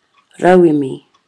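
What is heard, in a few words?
"rauemi", Māori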